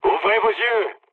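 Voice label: player friendly fire, mood low, French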